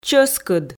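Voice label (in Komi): "чӧскыд", Komi, female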